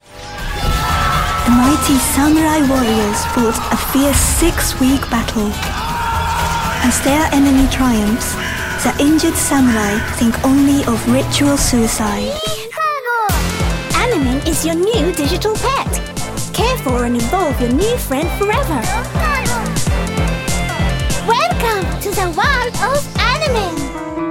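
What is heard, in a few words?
Japanese English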